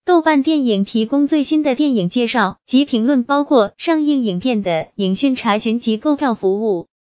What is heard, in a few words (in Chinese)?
女声